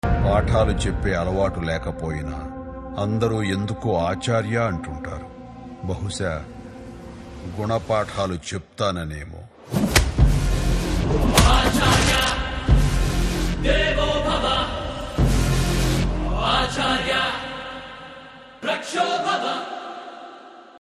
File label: Dialogue Telugu Ringtone; Acharya Teaser; Megastar Chiranjeevi